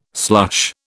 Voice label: "slush", British

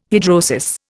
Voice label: "hidrosis", Indian

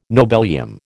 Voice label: "nobelium", American

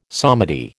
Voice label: "psalmody", American

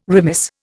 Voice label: "remiss", Indian

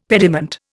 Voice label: "pediment", Indian; Dictionary

